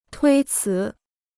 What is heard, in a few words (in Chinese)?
"推辞", Chinese